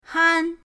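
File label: "han", Mandarin pinyin, first tone; pinyindct-open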